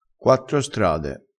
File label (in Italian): "quattro strade", vocabolario dialettale